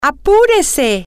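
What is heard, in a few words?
hurry up, spanish, female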